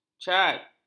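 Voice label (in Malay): "cat", Malay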